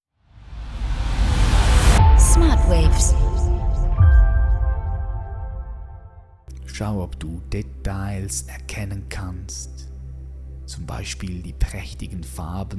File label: meditation